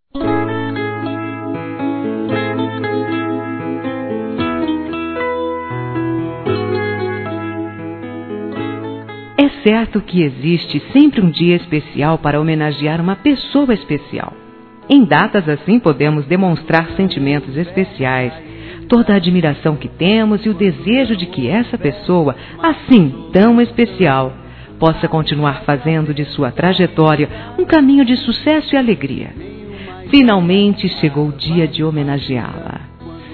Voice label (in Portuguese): dia mulher geral fem.; Telemensagens 2017